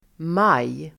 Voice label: "maj", Swedish

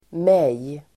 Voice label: "mig", Swedish

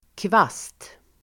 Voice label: "kvast", Swedish

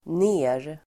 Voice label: "ner", swedish

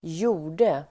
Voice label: "gjorde", Swedish

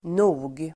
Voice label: "nog", Swedish

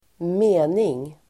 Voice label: "mening", Swedish

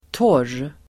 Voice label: "torr", Swedish

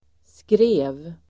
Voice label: "skrev", Swedish

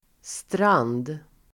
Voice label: "strand", Swedish

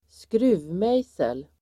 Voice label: "skruvmejsel", Swedish

